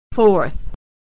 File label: "fourth", pronunciation